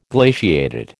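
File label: "glaciated", American English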